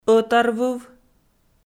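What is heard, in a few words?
"ӧтарвыв", Komi, female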